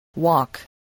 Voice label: "walk", spelling